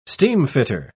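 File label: "steamfitter", British English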